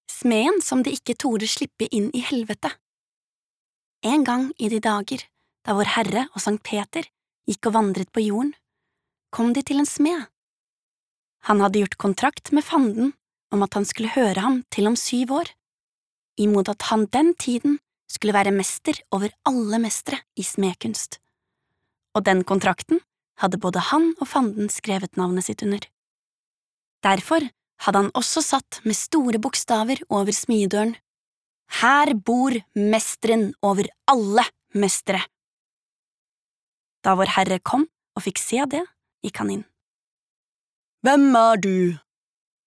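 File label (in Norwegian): barnebok, Norwegian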